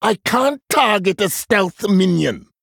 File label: Male Troll ERROR STEALTH